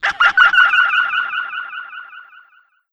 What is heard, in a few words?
ahaha hi delay